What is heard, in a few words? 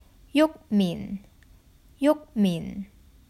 "Nyuk Min 玉明" Hakka; The Duke Who Didn’t: Glossary & Pronunciation Guide